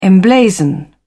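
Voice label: "emblazon", British English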